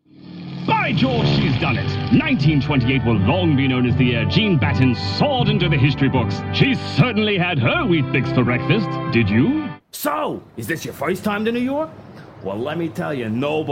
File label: Character